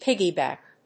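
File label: "piggyback", English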